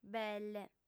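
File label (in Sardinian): "belle", female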